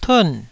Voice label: "tun4", Taiwanese